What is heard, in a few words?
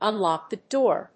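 "Unlock the door!", English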